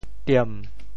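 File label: "tiem7", Teochew; 潮州; 橄榄尻川——坐毋惦; 坐不住、坐立不安; 歇后语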